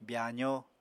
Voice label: "byaazó", Apatani